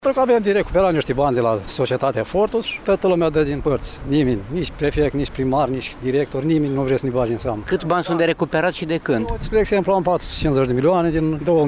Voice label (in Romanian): vox pop greva